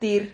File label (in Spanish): "tir", Silabas